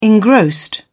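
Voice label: "engrossed", British English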